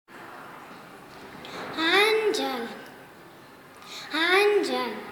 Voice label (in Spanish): angel, Spanish (Castellano)